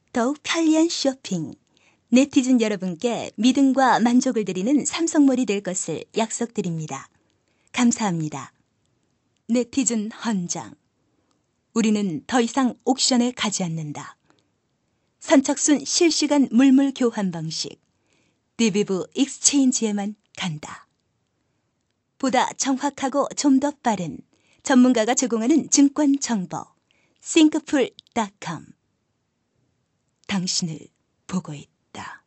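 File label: Korean, female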